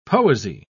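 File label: "poesy", British English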